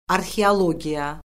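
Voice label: "arxeologiya", Azerbaijani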